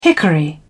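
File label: "hickory", English, British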